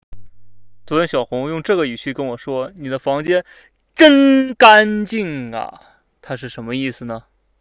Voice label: irony